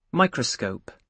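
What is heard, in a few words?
"microscope", British English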